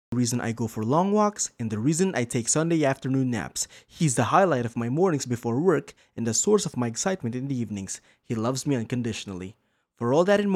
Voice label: English (Asian), Male